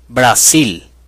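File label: brazil, Spanish; gcompris-data; geography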